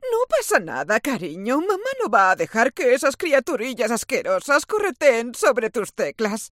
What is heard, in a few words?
FEMALE, videogames